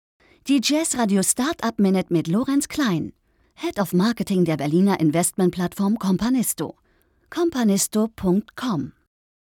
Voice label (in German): Jazz Radio Ansage; LOFT VOICES